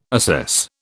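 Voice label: "assess", British English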